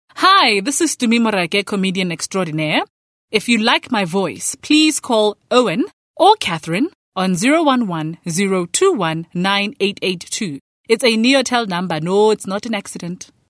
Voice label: English Conversational